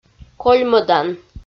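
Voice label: "кольмӧдан", Komi, female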